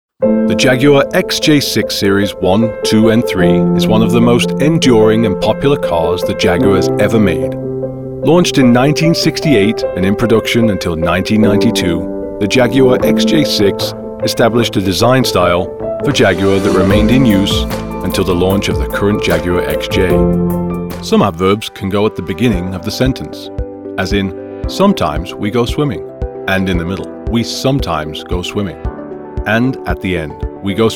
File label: NARRATION